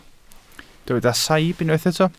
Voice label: "saib", Welsh